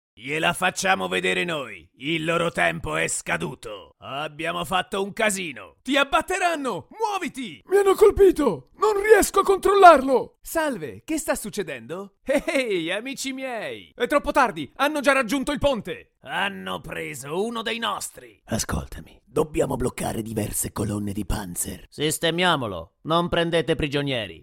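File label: Italian Characters; GoLocalise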